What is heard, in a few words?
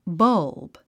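"bulb", 英単語 発音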